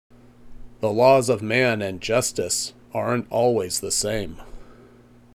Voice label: Voiceover